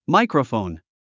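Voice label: "microphone", English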